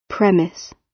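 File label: "premise", British English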